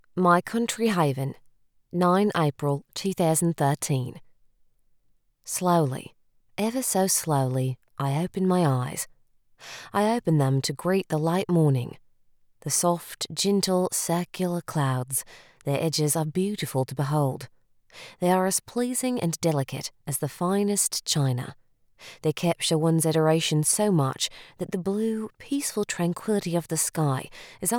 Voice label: Australian VO